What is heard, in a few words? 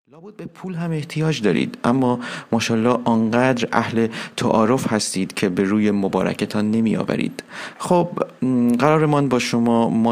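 FA General, Persian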